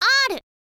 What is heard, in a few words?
"r", girl; voice; info girl